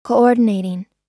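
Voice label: "coordinating", US English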